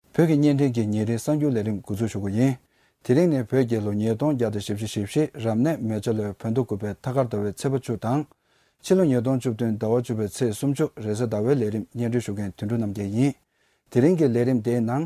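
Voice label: Tibetan, voice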